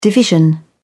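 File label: "division", British English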